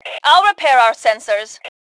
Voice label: Self Repair Sensors, Female; T2 Female 01